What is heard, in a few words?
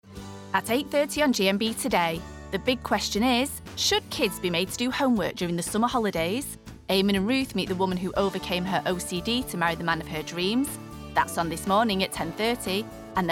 Continuity; ITV; Vocal Point